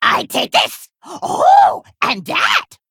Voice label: Female Kobold Play

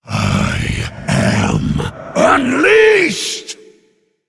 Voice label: Male Pit Lord Trigger

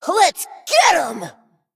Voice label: Female Dragon Attack

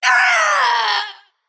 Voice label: Female Naga Death

